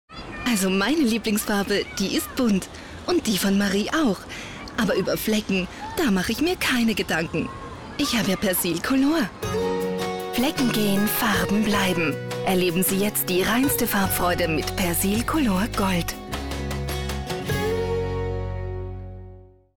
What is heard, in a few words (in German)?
Frau